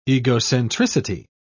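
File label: "egocentricity", British English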